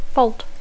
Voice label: "fault", English